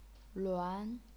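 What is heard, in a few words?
"luan", second tone, chinese pinyin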